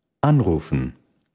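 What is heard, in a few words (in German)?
"anrufen", German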